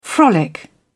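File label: "frolic", British English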